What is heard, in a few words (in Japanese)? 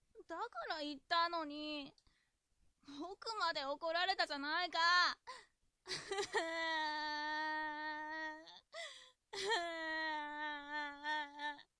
dansei